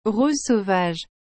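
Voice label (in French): "rose sauvage", French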